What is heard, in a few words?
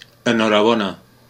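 congratulation, Scottish Gaelic; gcompris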